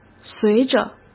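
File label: "随着", Mandarin Chinese; chinese-flashcards; hsk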